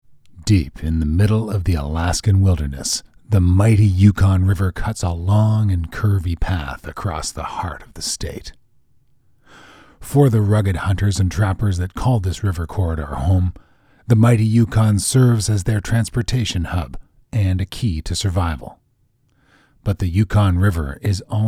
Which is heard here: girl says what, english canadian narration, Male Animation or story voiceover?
english canadian narration